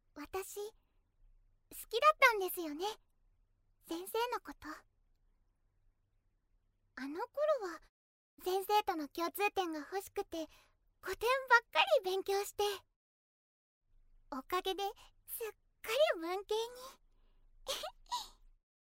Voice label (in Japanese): アニメ